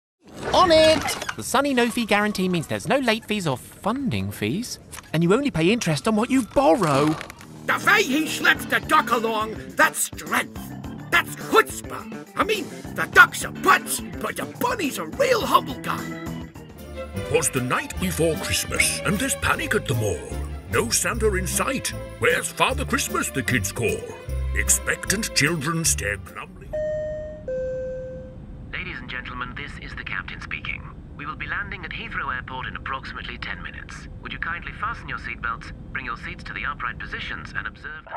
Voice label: vo character